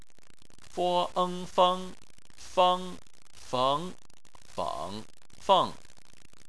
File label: "feng", chinese